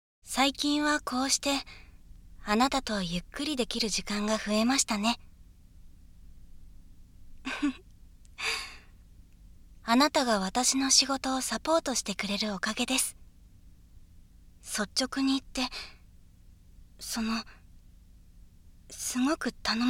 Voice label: 左 声のみ ノイズ除去なし, ASMR

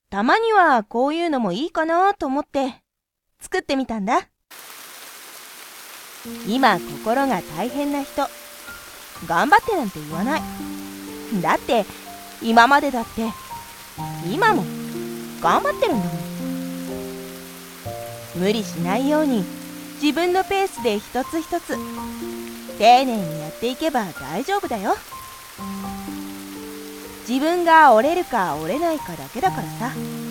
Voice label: 疲れたときに励ましボイス, ASMR; スピンオフ作品集

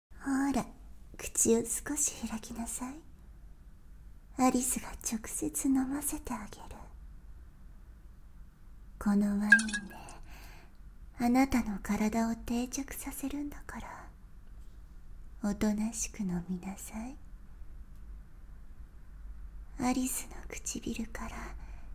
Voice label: ディープキス・腋毛剃り・ドレスアップ・メイキャップ, ASMR

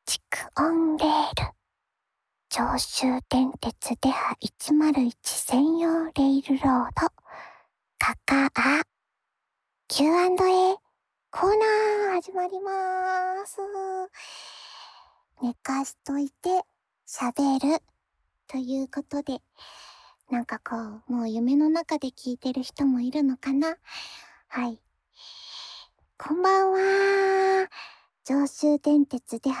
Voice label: Q&Aフリートーク

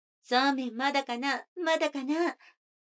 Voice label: 女の子台詞, ASMR

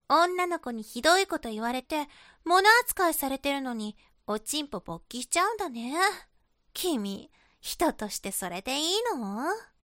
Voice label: 懲罰中音声, ASMR

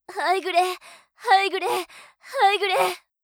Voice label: ハイグレ, 姉

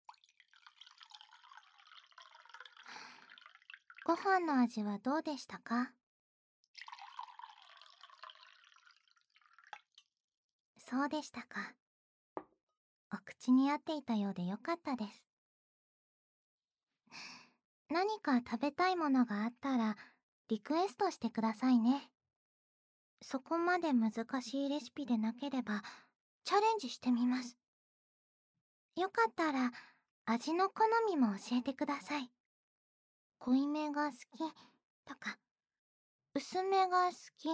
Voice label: 膝枕温め耳かき, ASMR

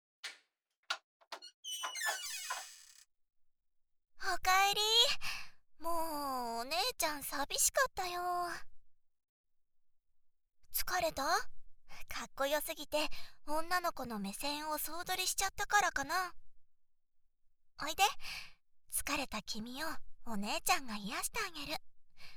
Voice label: 求愛あまあま耳舐め, ASMR

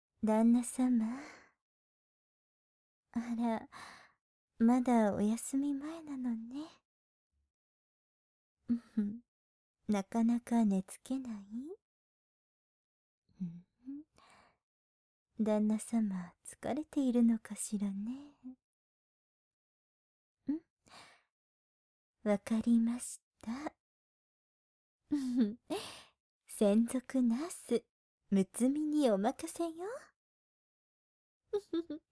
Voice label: ナースとして添い寝（BGMあり）; 六海さんナースとして添い寝

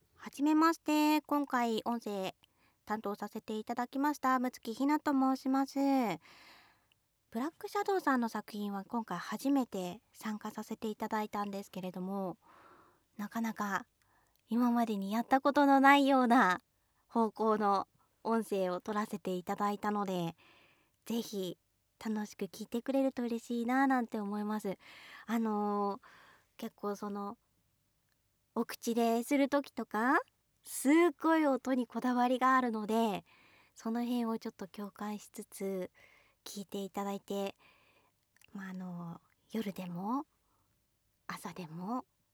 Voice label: フリートーク

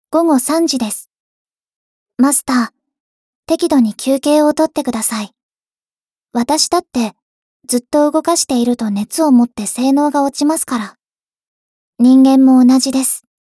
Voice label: 時報ボイス 電脳少女; ボイスコレクション 電脳少女編

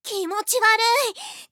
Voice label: 気持ち悪い！, 小悪魔; ハイグレボイス集

